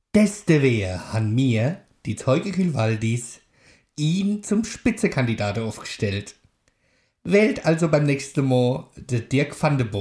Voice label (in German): Wahlwerbung